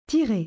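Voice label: "tirer", French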